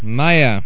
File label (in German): "meier", male; falcon